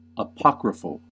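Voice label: "apocryphal", US English; pronunciations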